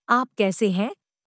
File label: Hindi How are you; Greetings